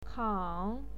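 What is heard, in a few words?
"kao3", Mandarin Chinese syllable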